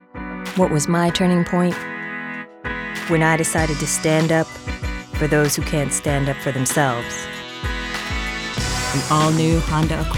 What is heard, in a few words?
commercial